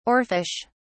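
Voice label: "oarfish", English, British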